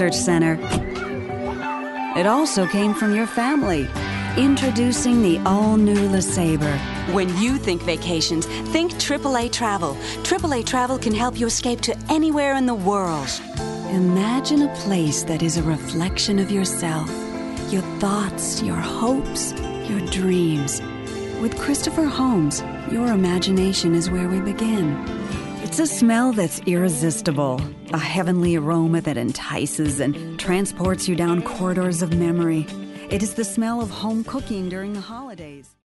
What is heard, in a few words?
Announcer